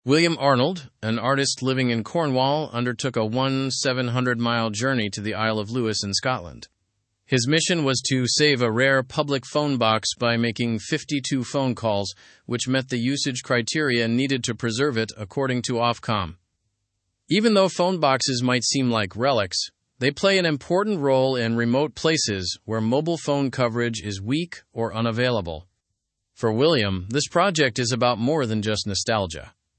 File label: learn english through news upper intermediate fast; artist s effort to preserve a phone box